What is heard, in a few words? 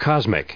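"cosmic", US English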